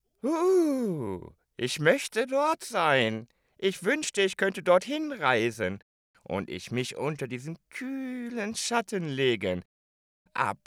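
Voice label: german male character; voice demos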